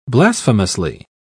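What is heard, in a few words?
"blasphemously", British English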